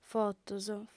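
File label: "fotos", Sardinian, female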